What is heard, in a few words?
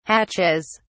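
"hatches", British English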